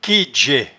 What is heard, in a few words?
"quedjet", patois jura